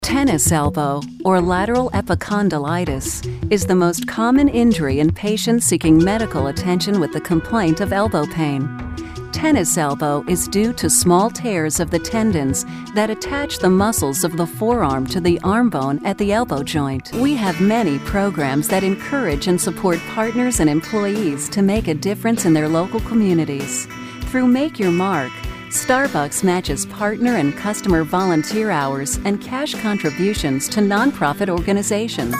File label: Narration